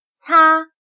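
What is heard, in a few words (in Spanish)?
"擦", chino mandarin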